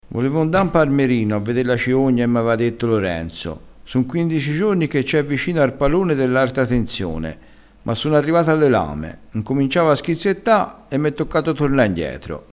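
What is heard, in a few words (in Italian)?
"ciogna", dialettale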